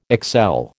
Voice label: "excel", American

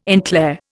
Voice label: "en clair", Indian

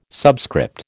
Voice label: "subscript", American

